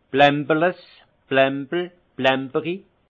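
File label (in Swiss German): "blambes", alsace Haut-Rhin; vin; vin de mauvaise qualité fam seins qui pendillent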